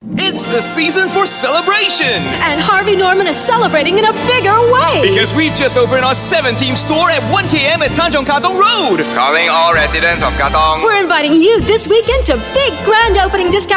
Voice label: Asian English; Harvey Norman